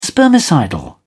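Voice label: "spermicidal", British English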